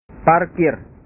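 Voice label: "parkir", Indonesian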